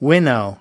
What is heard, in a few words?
"winnow", us